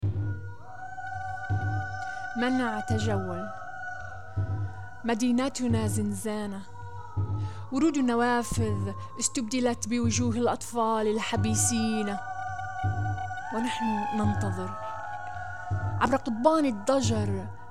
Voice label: Arabic; Curfew